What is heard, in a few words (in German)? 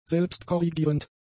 "selbstkorrigierend", German; adjectives